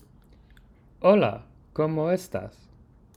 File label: spanish hello